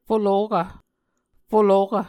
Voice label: "folokv", muscogee

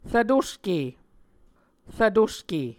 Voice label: "rvtoske", Muscogee

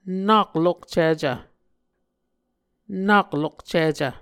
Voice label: "nak lokcicv", Muscogee; Mvskoke Words with Voicing Consonants; A Dictionary of Creek/Muskogee; SIL International; Onvkv Ohhvyayices

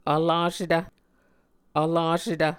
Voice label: "vlasetv", Muscogee

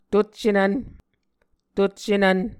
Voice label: "tuccenvn", Muscogee; Onvkv Ohhvyayices; A Dictionary of Creek/Muskogee; SIL International

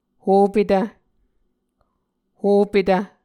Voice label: "hopetv", Muscogee

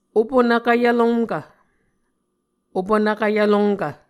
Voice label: "opunvkv yvlomkv", Muscogee

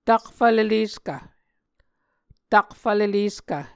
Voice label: "takfvleleskv", Muscogee

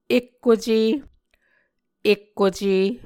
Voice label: "ekkuce", muscogee; Mvskoke Words with Repeated Consonants; ēkkocē; A Dictionary of Creek/Muskogee; SIL International; Onvkv Ohhvyayices

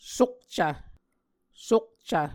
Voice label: "sukcv", Muscogee

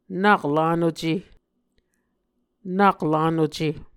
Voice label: "nak lanuce", Muscogee

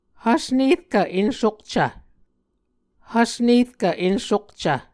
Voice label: "hvs nerkv ensukcv", Muscogee

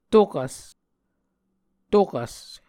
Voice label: "tokvs", muscogee

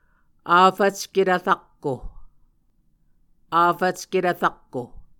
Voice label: "afvcketv rakko", Muscogee

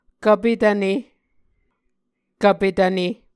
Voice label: "kvpetvne", Muscogee

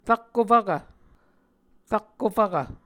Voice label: "rakko fvkv", Muscogee; Onvkv Ohhvyayices; A Dictionary of Creek/Muskogee; SIL International

